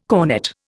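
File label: "cornett", Indian English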